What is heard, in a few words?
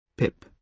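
"pip", British English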